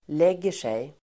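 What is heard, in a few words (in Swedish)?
"lägger sig", Swedish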